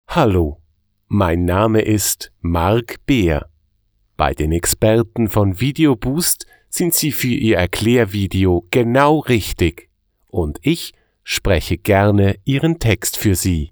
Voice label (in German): Schweizer Deutsch, voiceover; internationale voiceover